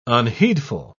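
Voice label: "unheedful", British English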